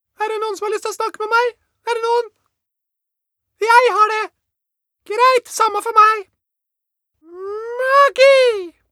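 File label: Norwegian dub woman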